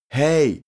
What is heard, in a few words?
male teen hey